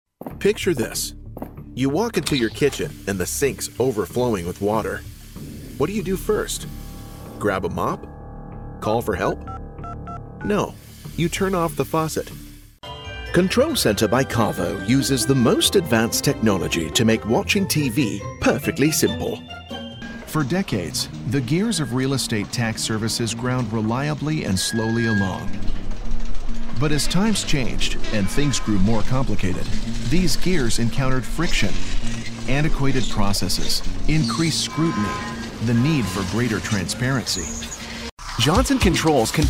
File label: Explainer Whiteboard